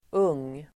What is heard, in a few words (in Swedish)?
"ung", Swedish